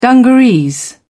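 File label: "dungarees", British English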